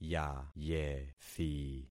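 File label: "yayethi", concatenated syllables; Affixing experiment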